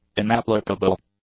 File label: "inapplicable", English; adjectives